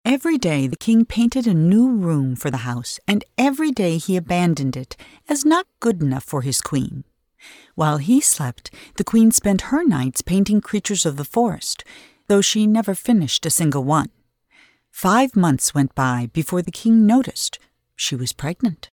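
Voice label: narration voice over, Pregnant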